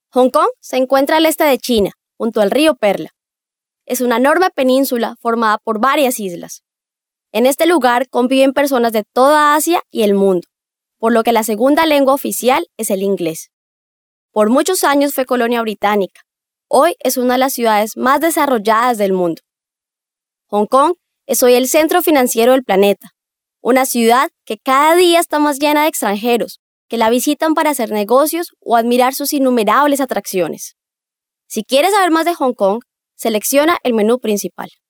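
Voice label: female corporate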